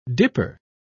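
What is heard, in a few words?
"dipper", British English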